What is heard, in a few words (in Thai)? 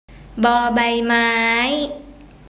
"บ ใบไม้", Thai